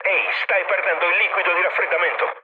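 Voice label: Italian damaged water mood low, speech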